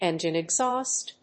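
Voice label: "engine-exhaust", English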